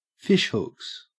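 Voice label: "fishhooks", Australian English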